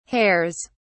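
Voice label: "hairs", British English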